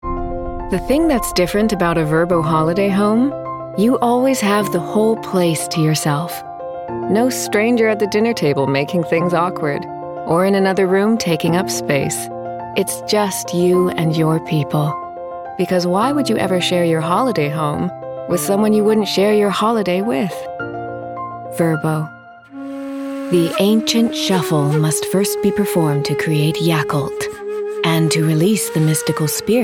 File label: Commercial Reel